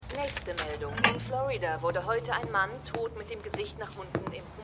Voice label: Nachrichtensprecherin; Shameless

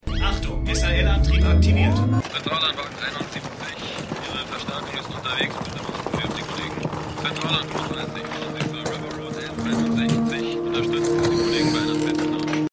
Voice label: Alarmstimme Funkstimme; Stimmproben; Eureka

